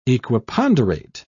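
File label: "equiponderate", British English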